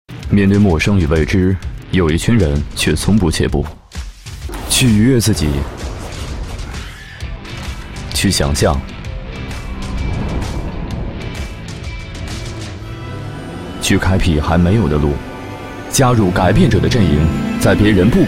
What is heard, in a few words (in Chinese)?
男 汽车; 奥迪燃情30年